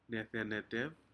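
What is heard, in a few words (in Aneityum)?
"nedeneter", Aneityum word, shellfish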